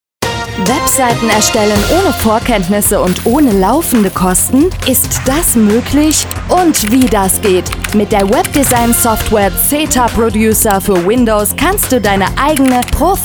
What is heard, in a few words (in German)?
radio werbespot; zeta producer